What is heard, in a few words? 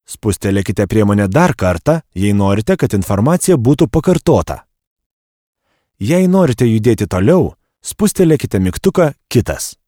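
Lithuanian e-learning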